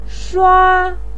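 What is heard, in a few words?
"shua1", Mandarin Chinese, pinyin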